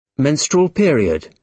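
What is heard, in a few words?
"menstrual period", British English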